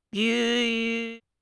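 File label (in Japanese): "びゅ", Japanese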